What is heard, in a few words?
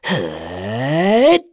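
Vox Casting, English; Esker; kingsraid; voices heroes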